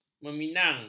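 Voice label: "meminang", Malay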